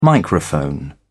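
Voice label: "microphone", British English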